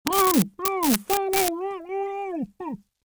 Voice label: Trying to talk with Gag Male; People